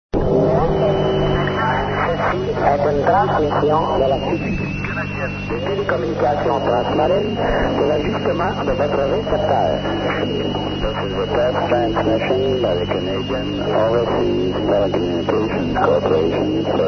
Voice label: usb